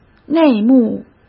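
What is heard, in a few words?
"内幕" Mandarin Chinese; hsk; chinese-flashcards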